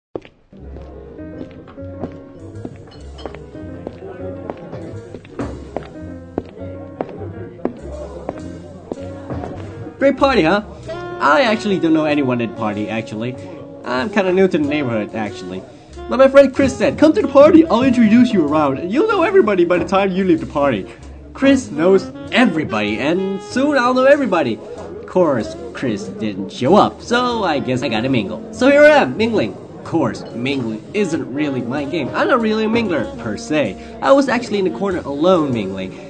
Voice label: sarcastic guy